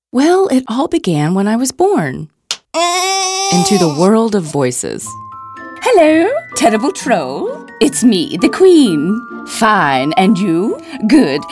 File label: Quick Character V O